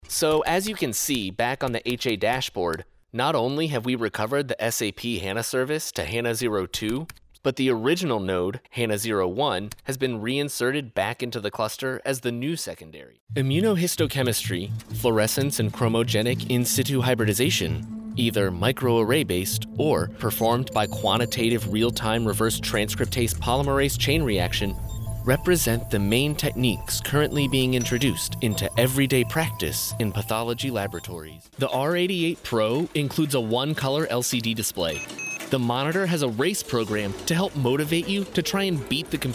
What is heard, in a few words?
Elearning Tutorial